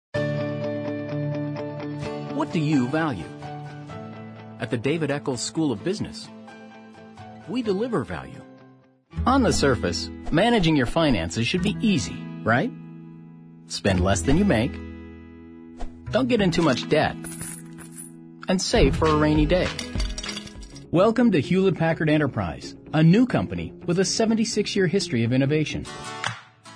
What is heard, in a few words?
narr